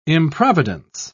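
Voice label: "improvidence", British English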